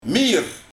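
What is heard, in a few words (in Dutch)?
"Miejer", dialect; Meer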